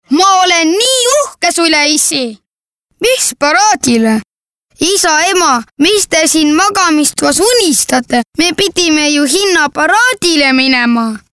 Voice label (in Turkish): Estonca Erkek Cocuk; seslendirme